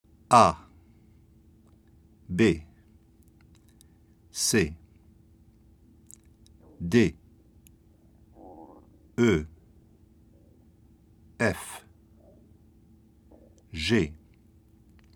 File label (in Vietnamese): phat am bang chu cai tieng phap